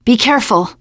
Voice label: warn careful, teen girl

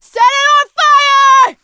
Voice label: battle cry tank; survivor; producer

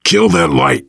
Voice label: kill that light, voice, biker; Left 4 Dead 2; survivor

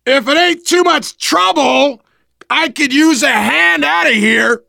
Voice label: call for rescue, survivor voice biker; Left 4 Dead 2; left4dead2 dlc3

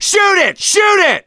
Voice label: battlecry tank, survivor voice; gambler

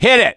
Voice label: misc directional, voice; gambler

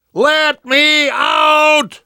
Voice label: call for rescue, survivor voice; namvet; Left 4 Dead 2; left4dead2 dlc2

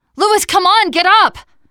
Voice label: revive friend loud, teen girl; player; survivor; voice

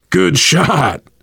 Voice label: nice shot, voice, biker; Left 4 Dead 2; survivor

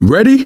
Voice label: ask ready, survivor voice manager; Left 4 Dead 2; left4dead2 dlc3

